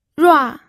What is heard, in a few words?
"rua4", pinyin